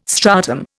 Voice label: "stratum", Indian